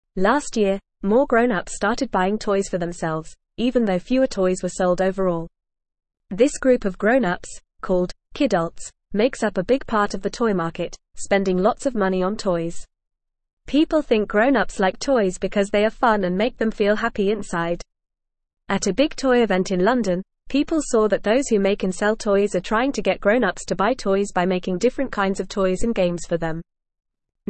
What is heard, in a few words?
English FAST Reading; Grown ups are buying toys for themselves